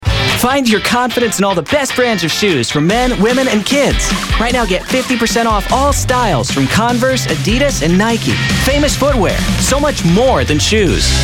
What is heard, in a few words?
upbeat youthful